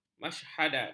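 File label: "masyhadat", Malay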